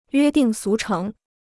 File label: "约定俗成", Chinese